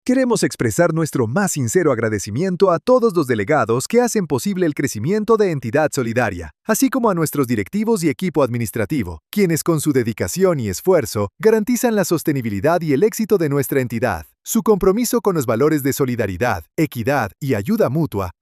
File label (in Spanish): masculino; asamblea; ¡Hablemos de tu informe de gestión!